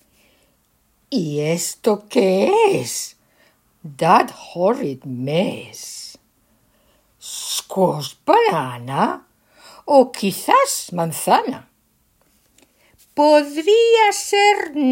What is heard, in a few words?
Spanish